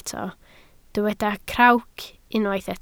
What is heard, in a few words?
"crawc", Welsh